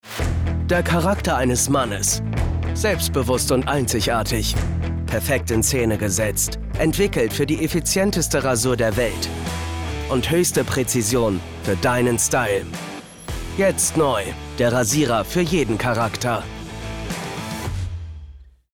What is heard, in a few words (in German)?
Werbung Rasierer